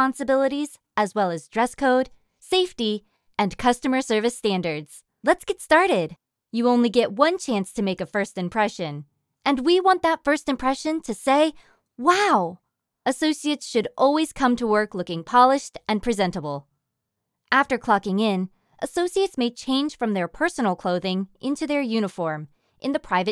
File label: eLearning Energetic Engaging Children's; American English voice-over artist; Castle